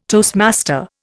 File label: "toastmaster", Indian